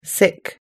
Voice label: "sick", us english